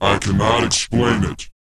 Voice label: pitch low; R&B & Dance; SCI-FI; ILIO; Vocal Planet